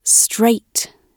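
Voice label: "straight", British English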